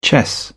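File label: "chess", British English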